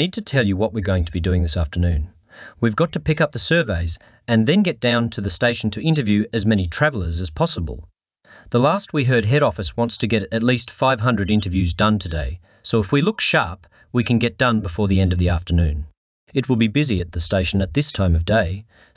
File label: Cinema Dialogue